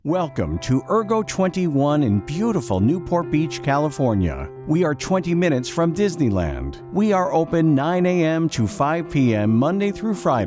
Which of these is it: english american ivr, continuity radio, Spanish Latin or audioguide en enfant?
english american ivr